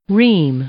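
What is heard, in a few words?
"ream", English, female; noun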